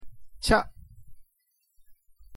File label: "ちゃ", Tokyo; thee-in-andere-talen